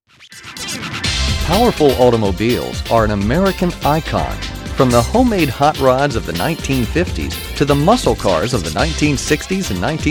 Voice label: Narration Short